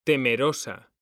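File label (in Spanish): "temerosa", Spanish; mekanta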